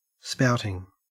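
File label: "spouting", Australian English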